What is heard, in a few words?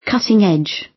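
"cutting-edge", British; Technology; Công nghệ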